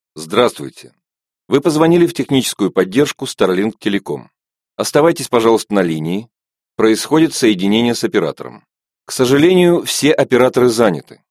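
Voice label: Russian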